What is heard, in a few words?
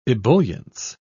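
"ebullience", British English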